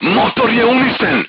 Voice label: voice message engine destroyed, serbian crew tanks